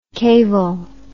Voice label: "cavel", English